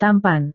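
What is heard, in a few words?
"tampan", Indonesian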